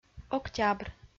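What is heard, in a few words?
"октябр", Komi, female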